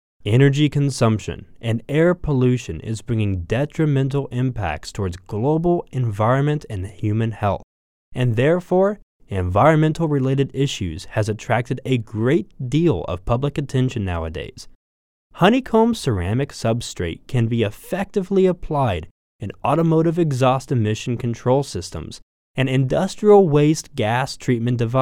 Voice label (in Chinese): 外籍 宣传解说 磁性稳重; 非金属